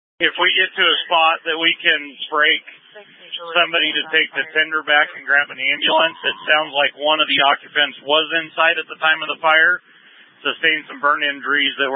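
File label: Incident Tactical 2, Scanner audio recording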